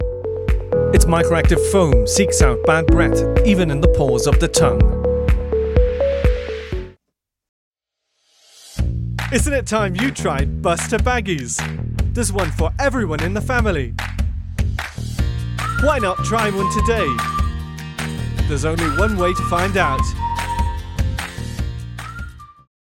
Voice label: English Irish promos